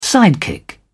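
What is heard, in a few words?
"sidekick", British English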